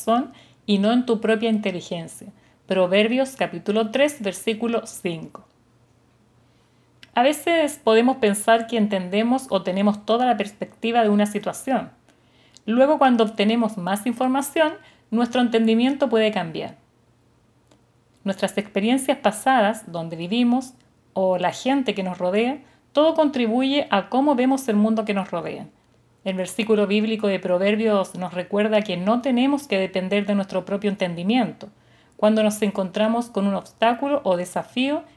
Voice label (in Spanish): devocional